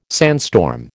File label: "sandstorm", American